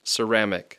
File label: "ceramic", English; I (short); Speech Pro